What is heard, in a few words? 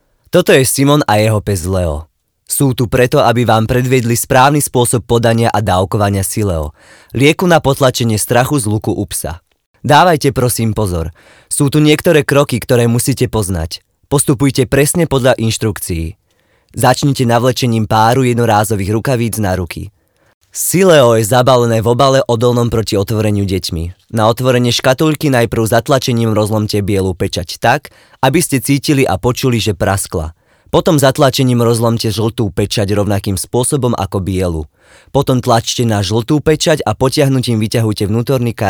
slovak male